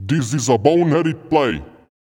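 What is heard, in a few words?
vocal male, Dance Vocals, spoken words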